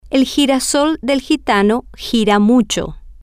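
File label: el Girasol Del Gitano Gira, Spanish, female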